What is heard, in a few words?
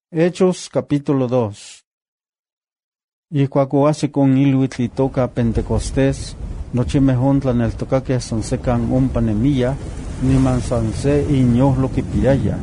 Nahuatl Guerrero; Acts 21